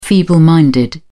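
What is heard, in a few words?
"feeble minded", British English, dictionary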